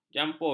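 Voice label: "jampul", Malay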